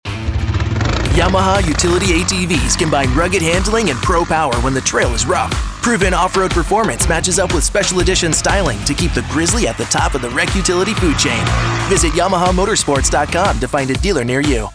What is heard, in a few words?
Rugged Outdoors; Yamaha